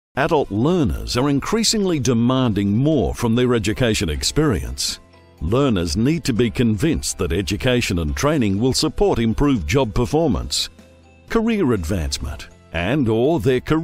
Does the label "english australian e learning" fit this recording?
Yes